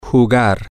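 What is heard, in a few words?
to play, spanish, male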